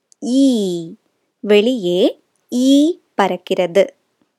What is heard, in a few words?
Tamil listen and write